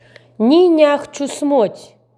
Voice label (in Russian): Аудиофайл предложения нихвский